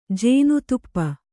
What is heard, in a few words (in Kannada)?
"ಜೇನುತುಪ್ಪ", Kannada